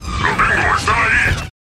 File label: acting Videogame Imperative Robot